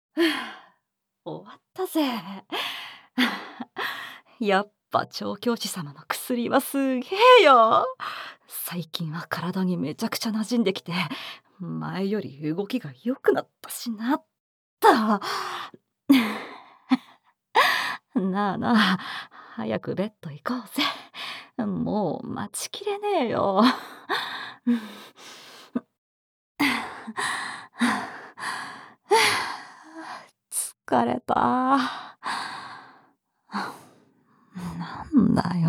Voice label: ささやき耳舐め, ASMR; SEなし; 飼い主様に媚びるささやき耳舐め全身リップ【従順度80％】

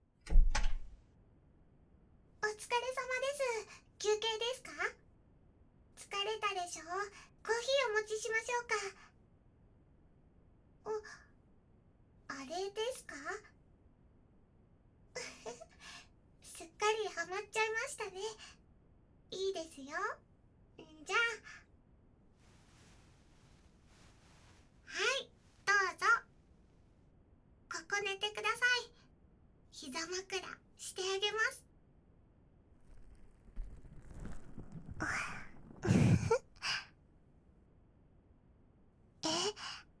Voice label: 小田さん甘々囁き耳かきタイム, ASMR; ロリボ家政婦さん

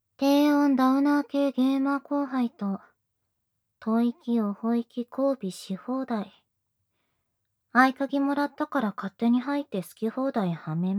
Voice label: タイトルコール, ASMR

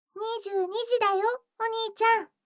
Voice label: 時報22時

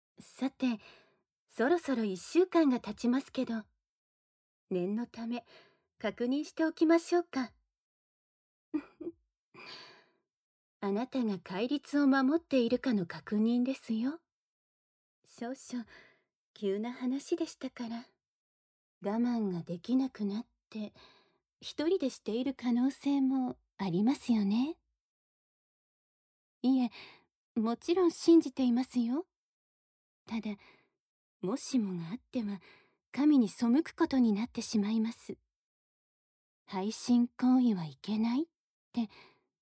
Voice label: 耳舐め手コキ; 懺悔

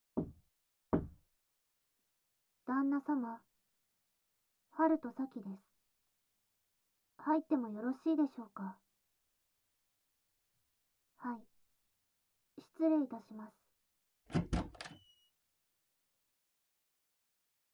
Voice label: 色んなASMRのお時間です; メイド尽くし～5周年記念作品～

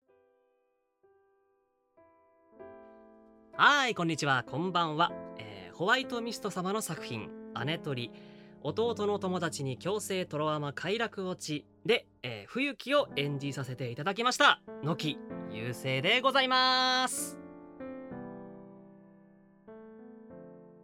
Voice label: 予告告知トーク

